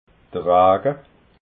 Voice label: "raga", alsace

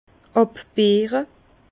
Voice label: "abbeere", Bas Rhin; vin; égrapper

